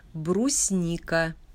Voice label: Pronunciation Russian Berry Brusnika